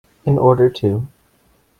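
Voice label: "in order to", English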